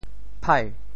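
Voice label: "phai2", Teochew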